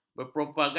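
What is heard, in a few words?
"berpropaganda", Malay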